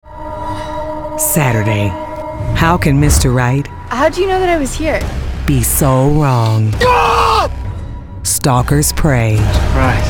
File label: Promo